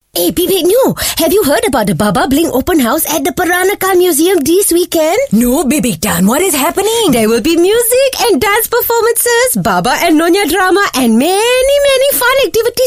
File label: Asian English voice over; BABABLING